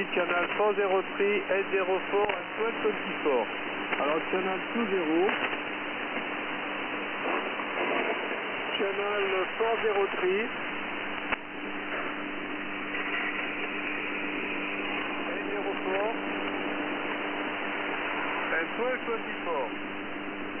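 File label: 8728 usb radio weather music ID, 8728kHz, Marine Audio HF; Monaco R 3AC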